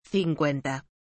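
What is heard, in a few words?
"cincuenta", Spanish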